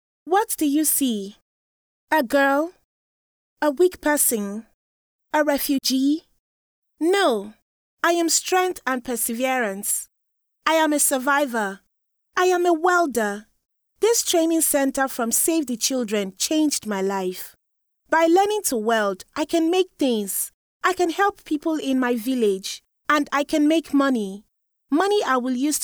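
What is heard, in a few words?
English African Female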